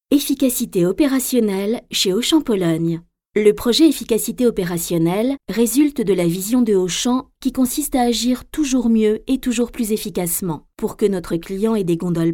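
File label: French, female narration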